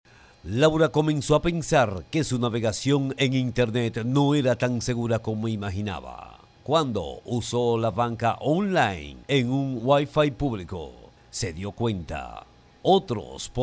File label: locutor dominicano dominican voiceover; Locutores de Santo Domingo